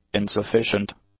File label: "insufficient", English; adjectives